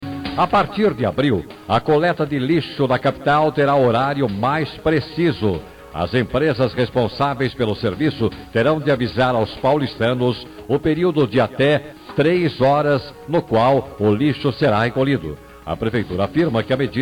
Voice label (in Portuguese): tupi noticias